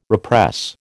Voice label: "repress", American

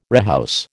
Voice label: "rehouse", American

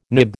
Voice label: "nib", American

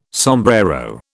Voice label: "sombrero", British

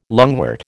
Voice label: "lungwort", American

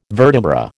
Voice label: "vertebra", American

